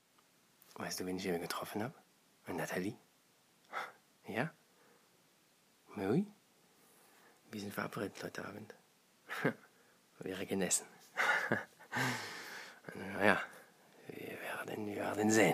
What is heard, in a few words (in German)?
Franzosischer Akzent